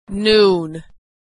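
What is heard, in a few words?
"noon", American English; vowel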